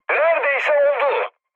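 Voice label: Turkish bomb failure, mood high, speech; War Thunder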